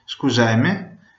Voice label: excuse me, venetian